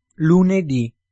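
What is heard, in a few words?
"lunedi", Italian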